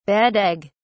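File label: "bad egg", British English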